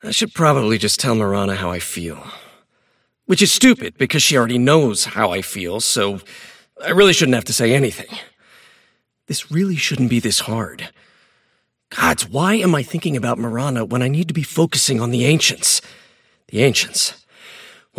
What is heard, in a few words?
monologue; davion; dota